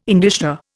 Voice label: "indicia", Indian